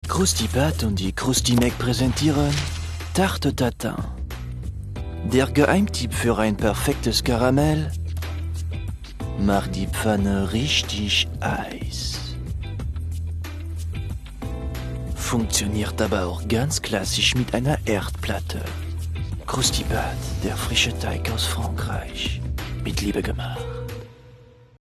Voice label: Franzoesisch Akzent SEXY Werbung; Croustipate

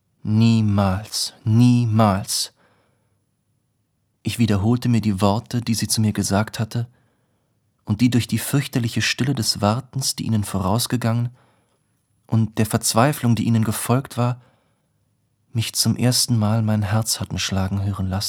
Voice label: Hoerbuch; Proust; Bewusstsein

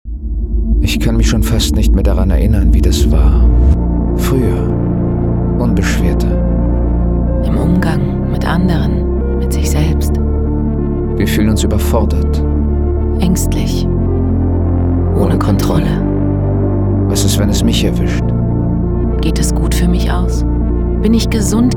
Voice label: MELANCHOLISCH

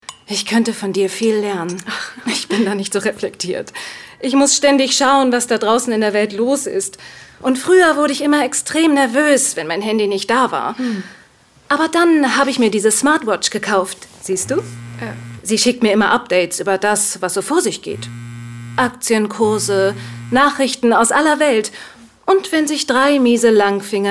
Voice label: Hörspiel; TKKG; Das verfluchte Osterei

